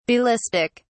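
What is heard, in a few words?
"Ballistic", British English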